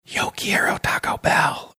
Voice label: whispering yo quiero taco bell